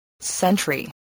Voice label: "sentry", english spelling

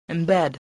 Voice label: "embed", spelling

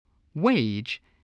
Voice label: "wage", spelling

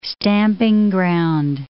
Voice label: "stamping ground", English

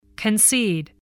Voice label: "concede", english

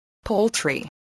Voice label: "poultry", English

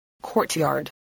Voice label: "courtyard", voice spelling

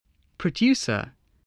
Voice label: "producer", voice, spelling

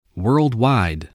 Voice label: "worldwide", voice, english

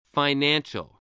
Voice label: "financial", spelling

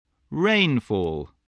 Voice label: "rainfall", English

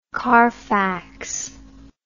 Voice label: "carfax", English

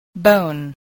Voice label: "bone", spelling

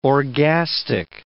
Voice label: "orgastic", English

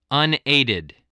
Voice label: "unaided", English spelling voice

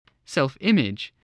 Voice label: "self-image", english spelling

